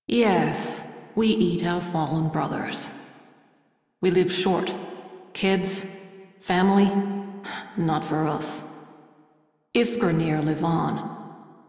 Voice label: Crew Idle, Female; Railjack Crew; Steel Meridian